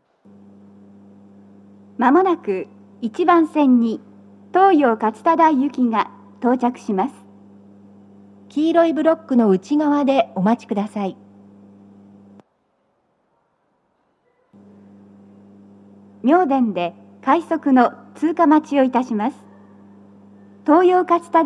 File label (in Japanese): 接近放送, 放送は「各駅停車 東葉勝田台」です。妙典で、快速 東葉勝田台行きの通過待ちを致します。 BOSE天井型での収録です。